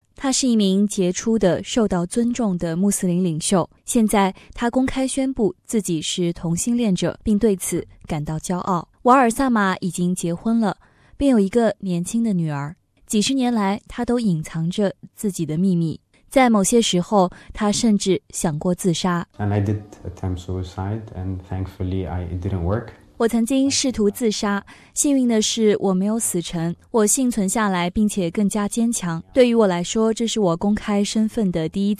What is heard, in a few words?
mandarin; SBS Chinese; AUSTRALIA'S FIRST OPENLY GAY IMAM